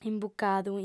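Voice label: "imbucadu", female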